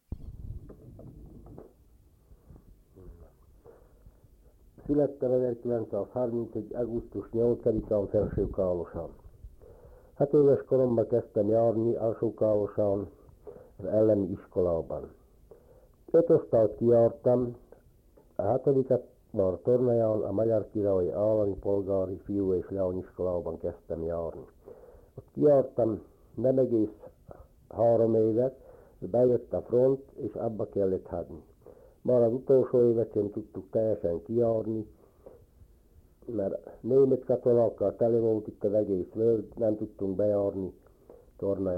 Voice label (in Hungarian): nyelvjaras gyujtesek